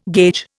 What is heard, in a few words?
"gauge", Indian